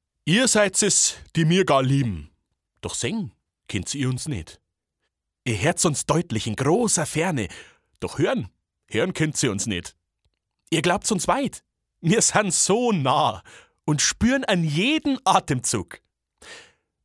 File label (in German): bayrisch, maenner